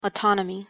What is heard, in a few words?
"autonomy", English pronunciation